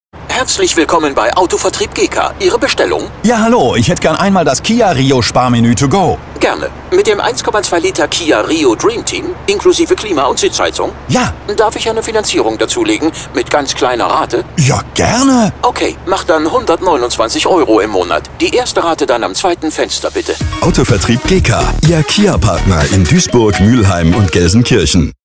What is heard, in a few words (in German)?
Funkspot; GECA